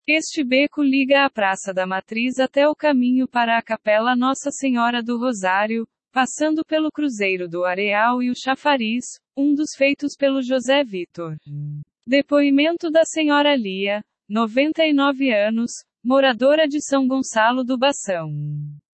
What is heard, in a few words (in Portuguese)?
beco narrativa